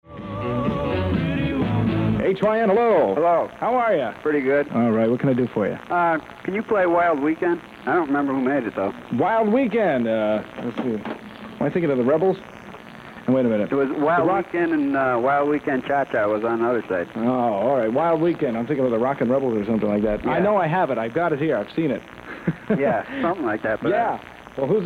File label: air check; whyn